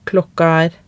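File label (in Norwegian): "klokka er", Norwegian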